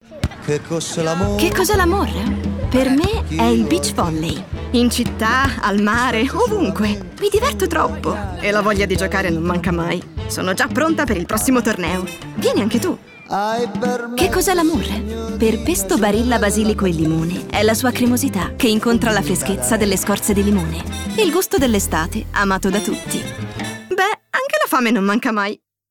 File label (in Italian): spot; barilla